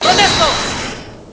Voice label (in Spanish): "Protesto", Spanish